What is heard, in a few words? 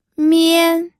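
"mian", first tone, pinyin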